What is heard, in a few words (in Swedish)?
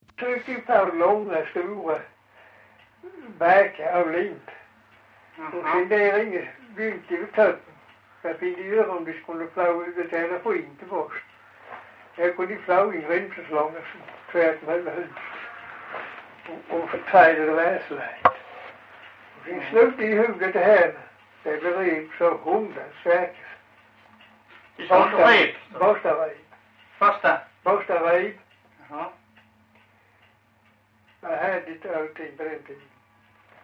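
Farstorp Skåne, dialekter; Folkminnen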